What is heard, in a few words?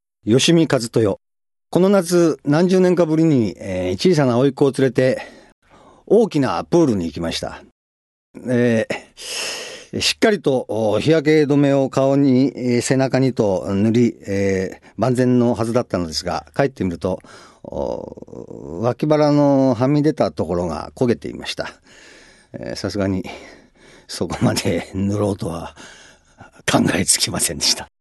freetalk, male voice